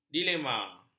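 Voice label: "dilema", Malay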